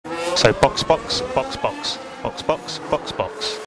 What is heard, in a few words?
box box ringtone